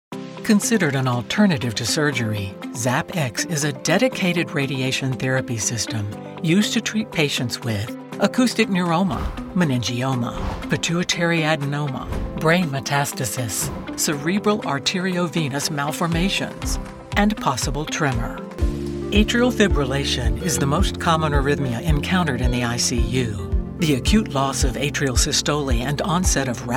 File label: Medical Narration